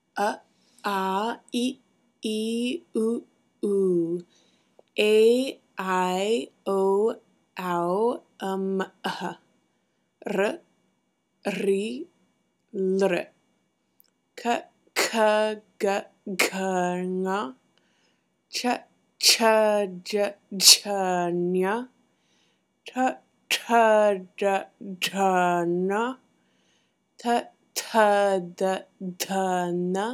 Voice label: sanskrit alphabet